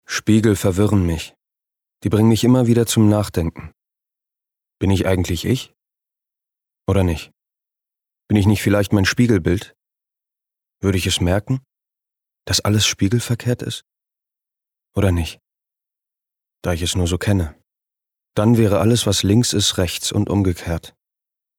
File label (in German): Innerer Monolog